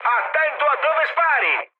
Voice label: player friendly fire mood high, speech it; War Thunder